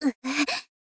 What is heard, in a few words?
"uu", girl